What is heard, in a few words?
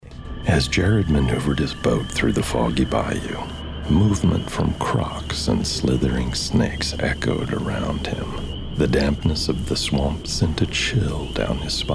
Creepy Solemn Adventure